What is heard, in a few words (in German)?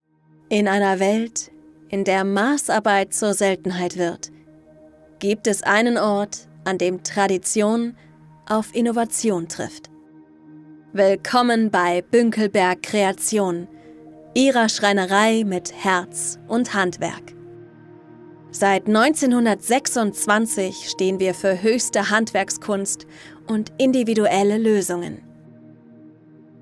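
Imagefilm, Sprecherin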